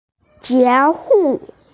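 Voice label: "juewu", Mandarin Chinese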